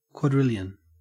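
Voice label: "quadrillion", Australian English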